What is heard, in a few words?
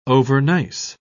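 "overnice", British English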